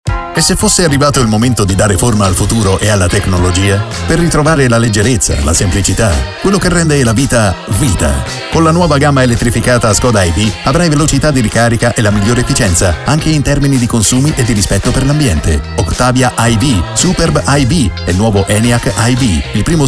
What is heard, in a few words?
italian automotive